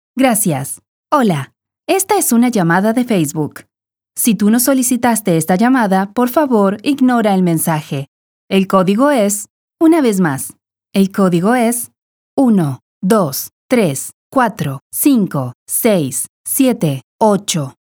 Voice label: spanish latin american ivr

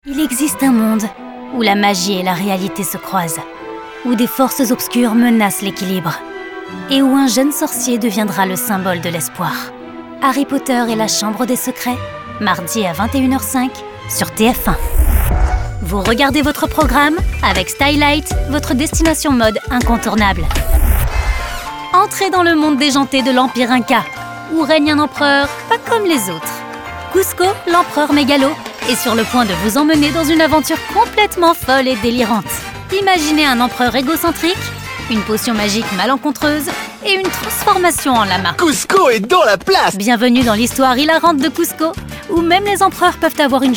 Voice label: french movie trailers

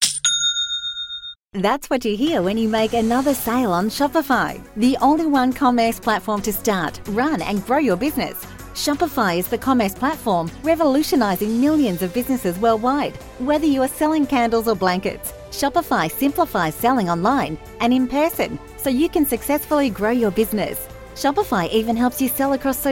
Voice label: english australian explainer videos

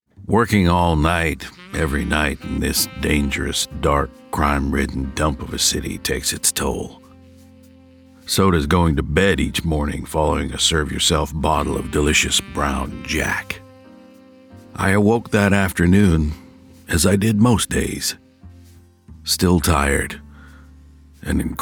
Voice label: english canadian podcasts

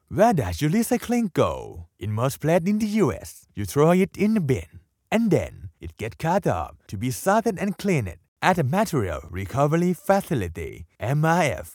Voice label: English narration

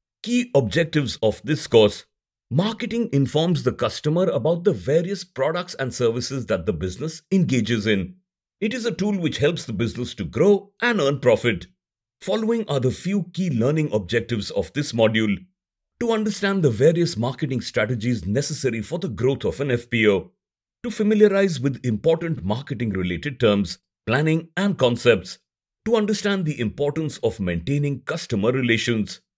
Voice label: english indian e-learning

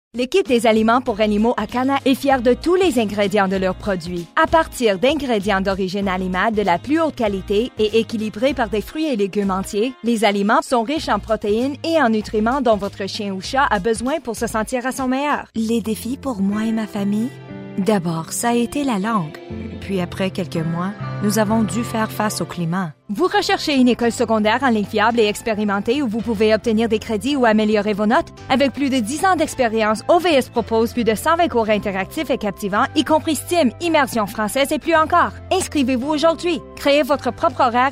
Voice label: french commercial

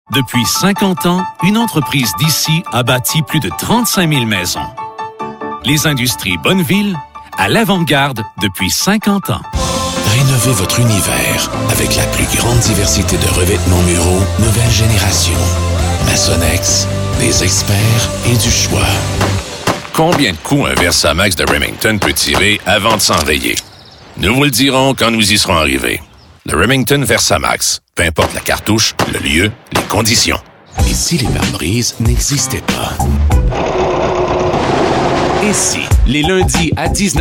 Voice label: french canadian commercial

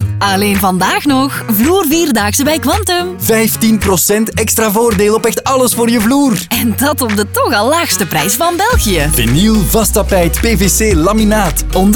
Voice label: flemish

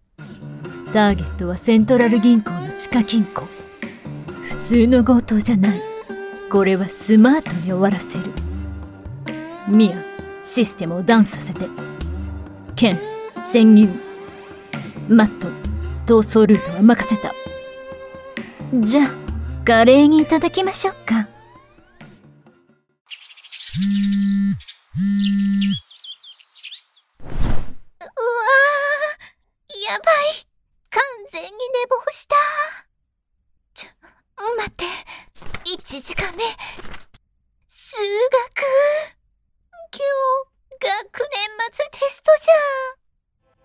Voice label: japanese animation; locutora japonesa nativa

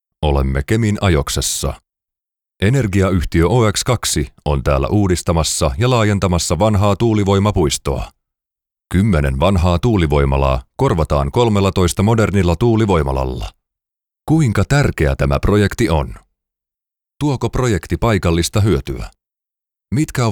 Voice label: finnish corporate

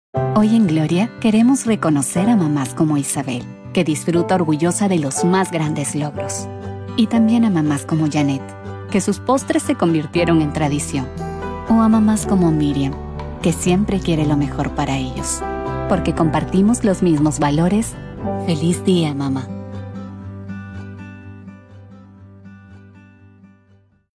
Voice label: Spanish Latin American

